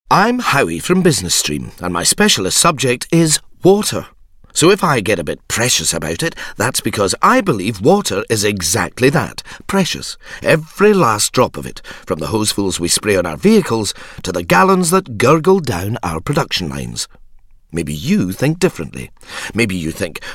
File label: Corporate Sample